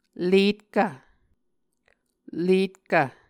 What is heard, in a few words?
"letkv", Muscogee